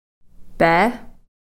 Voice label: "pee", sun